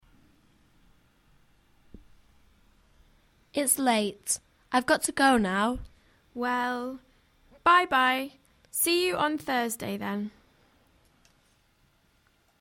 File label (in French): anglais dial